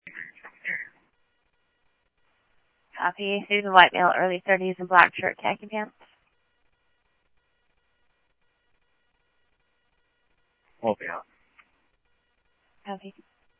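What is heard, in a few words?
police radio scanner traffic, Mesa Simulcast; MesaPoliceDep; MESA PD 1 Fiesta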